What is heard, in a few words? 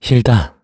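vox story, Korean; Siegfried; kings raid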